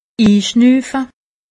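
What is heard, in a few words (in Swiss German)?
"inschnuffe", Alsatian; medecine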